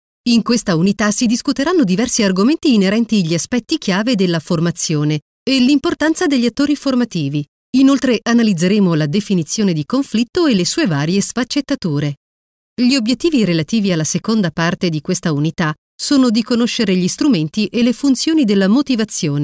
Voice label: italian e-learning

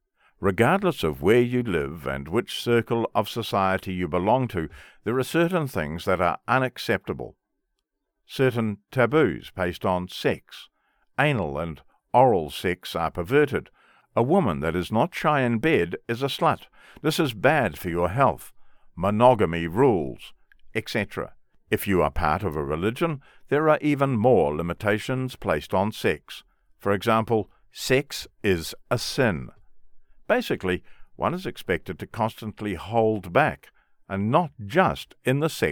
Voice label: english mid atlantic training e-learning

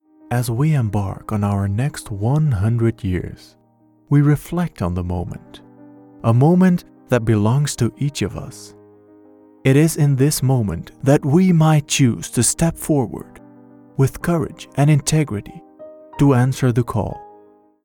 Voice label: English narration

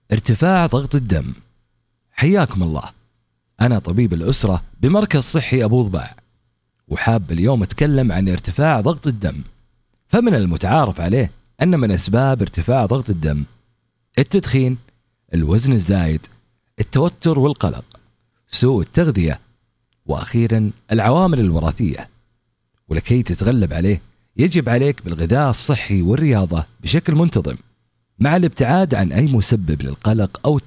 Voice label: arabic medical narration

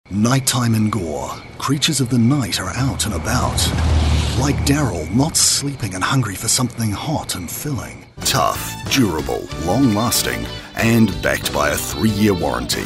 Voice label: english new zealand commercial

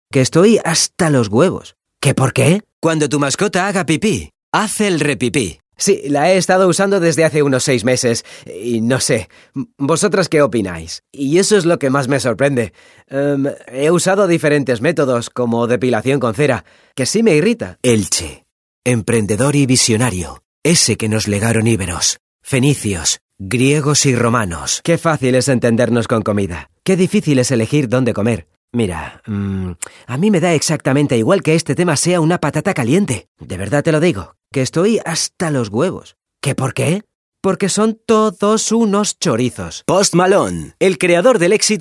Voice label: spanish commercial

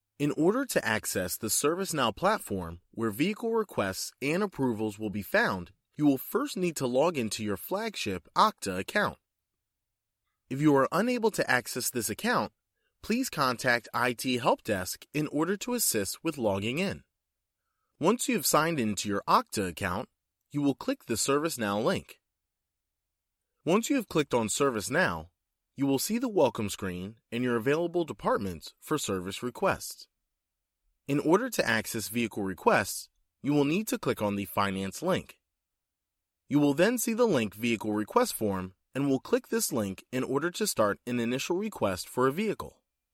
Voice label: english american e-learning

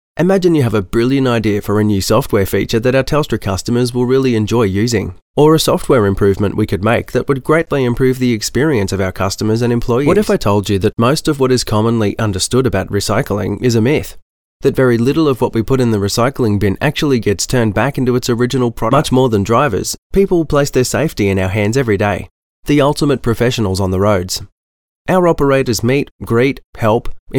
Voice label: english australian audiobooks; Modulated, clear Australian accent

